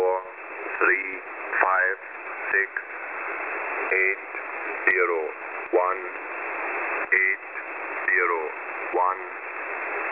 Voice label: english voice